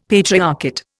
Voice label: "patriarchate", Indian; Dictionary